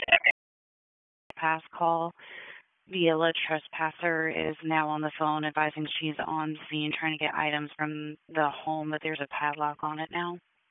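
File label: Police Dispatch, p25; Wake Forest Police Disp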